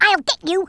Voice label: "I'll get you", Brooklyn; Worms Armageddon